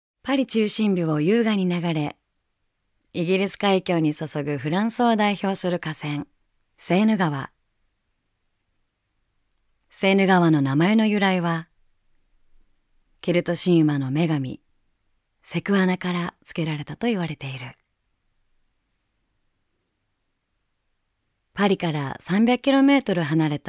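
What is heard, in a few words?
Voice Sample Narration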